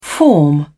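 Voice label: "form", English, British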